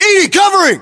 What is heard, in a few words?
US response covering, battlechatter, english